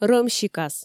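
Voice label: "рӧмсикас", Komi, female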